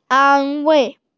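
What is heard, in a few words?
"an wei", Mandarin Chinese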